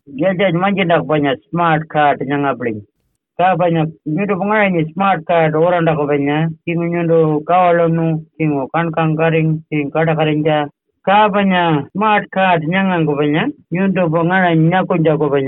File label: Pitjantjatjara; Getting a SmartCard